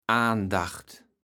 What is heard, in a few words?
"Aandacht", platt